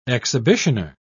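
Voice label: "exhibitioner", British English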